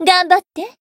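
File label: Voice heal, Japanese; toova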